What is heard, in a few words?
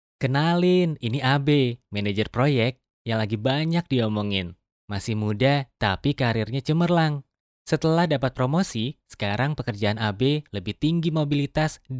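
Indonesian male voice over, upbeat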